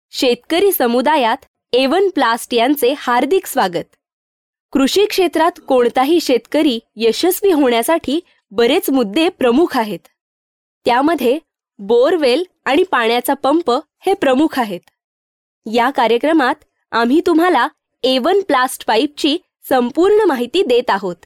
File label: female marathi voice over narrative